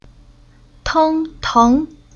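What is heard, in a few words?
"Teng", chinese syllable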